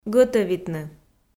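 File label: "гӧтӧвитны", Komi, female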